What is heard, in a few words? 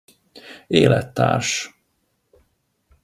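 "elettars", Hungarian pronunciation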